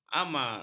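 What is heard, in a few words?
"amar", Malay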